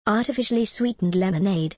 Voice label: en gb artificially sweetened lemonade

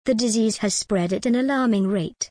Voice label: en gb the disease has spread at an alarming rate

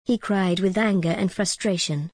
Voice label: en gb he cried with anger and frustration

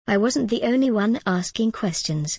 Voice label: en gb i wasn t the only one asking questions

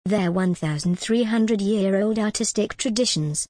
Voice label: en gb their 1 300 year old artistic traditions

